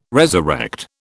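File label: "resurrect", British English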